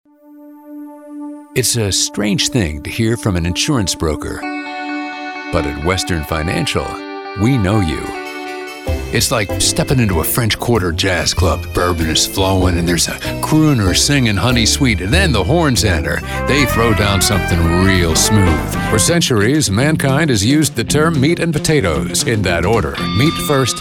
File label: Voice Over Artist, Commercial; Orlando